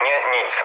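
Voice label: Polish chat; War Thunder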